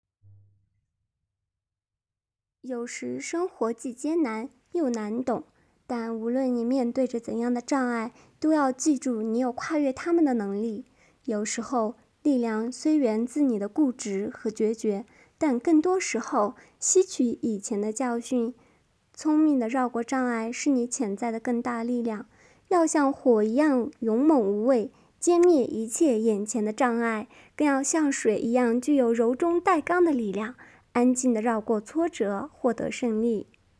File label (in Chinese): 中文